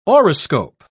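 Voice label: "auriscope", British English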